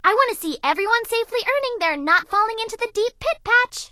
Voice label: English Voice

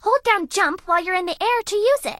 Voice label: English Voice

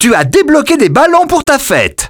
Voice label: French - dialogue, reveal

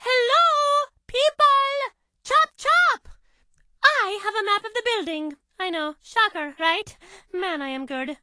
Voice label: English Voice

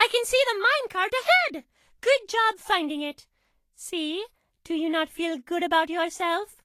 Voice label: English Voice

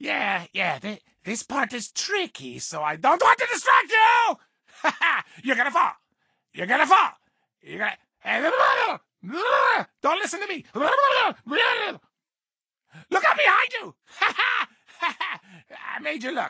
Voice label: English Voice

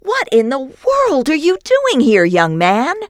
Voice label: nurse female busted; Voices; lucius ii the prophecy

